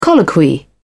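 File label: "colloquy", British English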